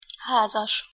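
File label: "hazas", Hungarian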